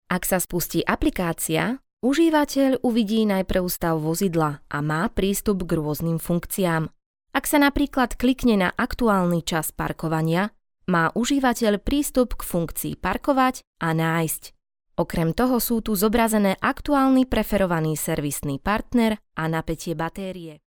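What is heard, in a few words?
Slovak, female, e-learning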